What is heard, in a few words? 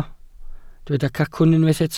"cacwn", Welsh